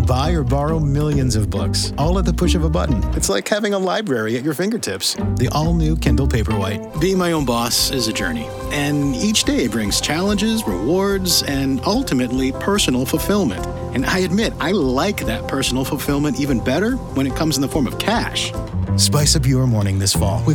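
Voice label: commercial voice over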